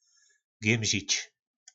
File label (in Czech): "Gjymžič", nářečí Po našimu; Vrtět (se); Co se fórt gjymžiš.; Co se pořád vrtíš.